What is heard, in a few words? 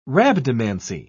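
"rhabdomancy", British English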